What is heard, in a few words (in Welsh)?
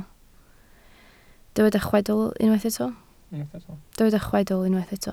"chwedl" Welsh